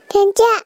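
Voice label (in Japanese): "konntiwa", Japanese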